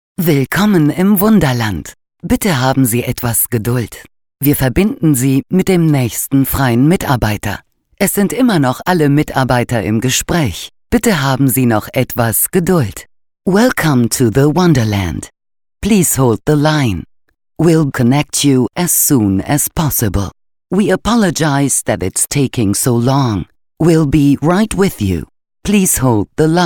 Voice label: anrufbeantworter deutsch englisch

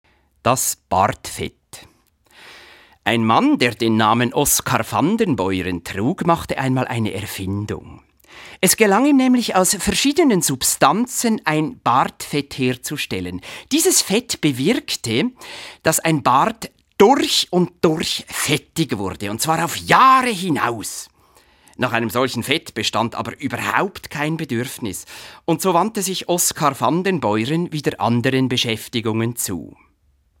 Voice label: mit schweizer akzent; das bartfett

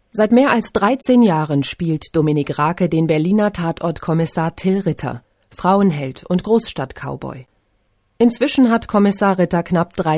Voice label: reportage

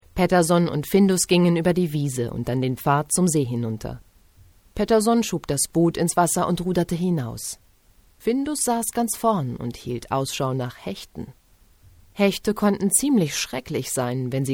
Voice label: hoerbuch; petterson

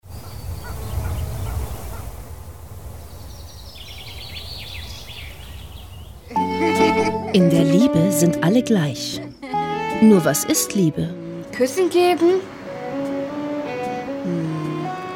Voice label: doku; wdr; hautnah erwachsen

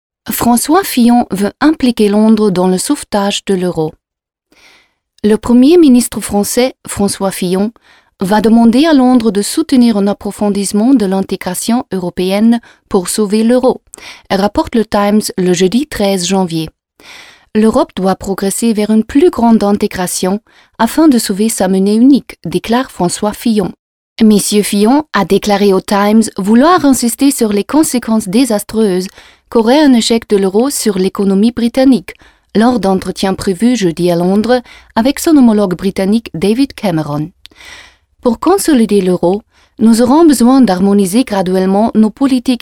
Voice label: franzosisch demo

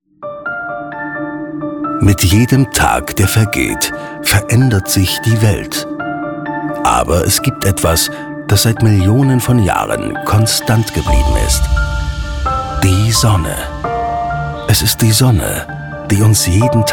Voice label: sprecher voice imagetrailer

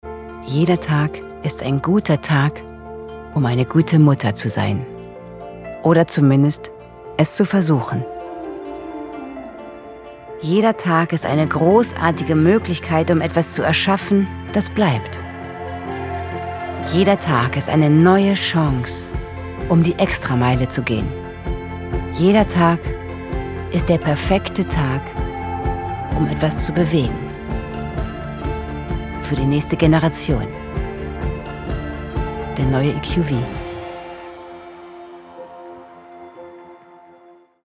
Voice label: Werbung; eqv

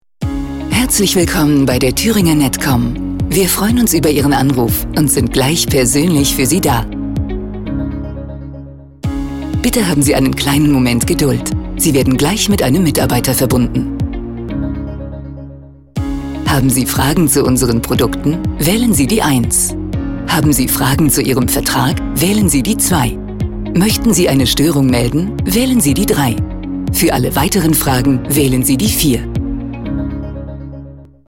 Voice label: telefon; thueringer netkom

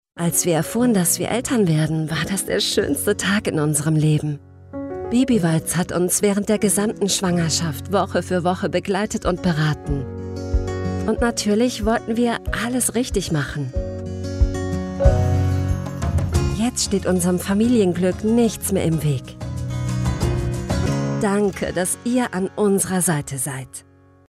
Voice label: werbung sanft jung; babywalz

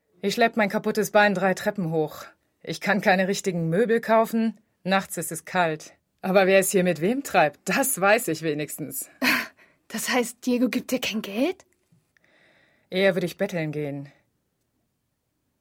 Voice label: Synchron Realfilm; frida

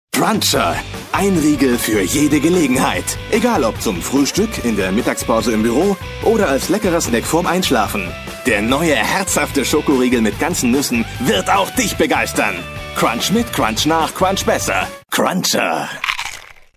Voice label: werbung; Sprecher in Berlin; Muttersprache Deutsch; cruncher

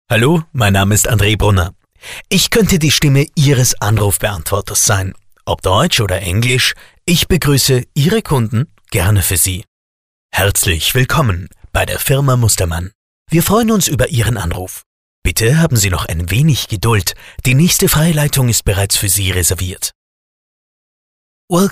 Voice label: telefonschleifen

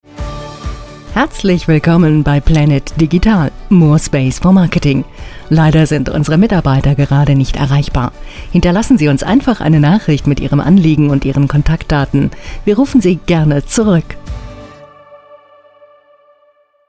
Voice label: Telefonansage; planit-digital

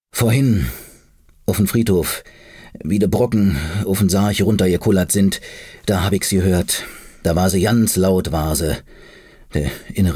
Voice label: Berliner Dialekt Alt Berlin

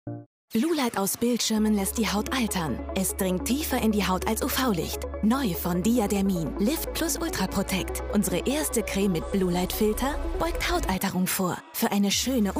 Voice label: werbung tv; diadermine 2019

